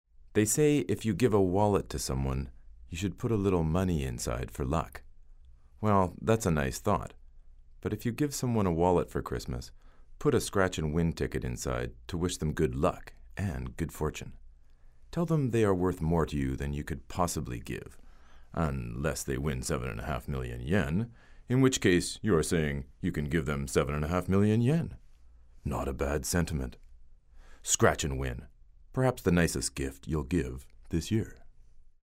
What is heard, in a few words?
tvad